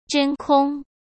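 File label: "真空", Mandarin Chinese; chinese flashcards; hsk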